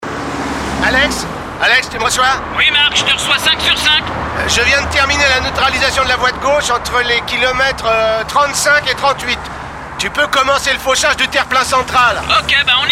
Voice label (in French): spot radio chantier